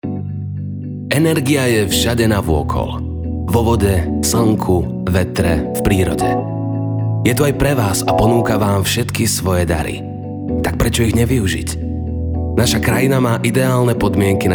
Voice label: voiceover